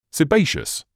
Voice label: "sebaceous", English